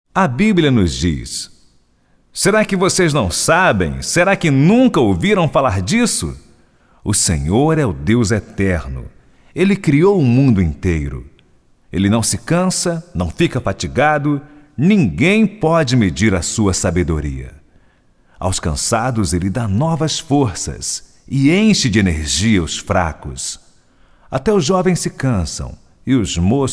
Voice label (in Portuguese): Narração